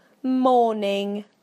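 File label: "morning", British English; PictureDictionary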